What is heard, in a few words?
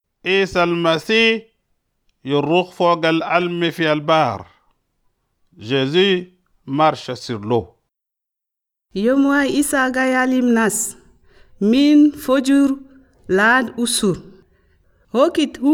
come, chadian arabic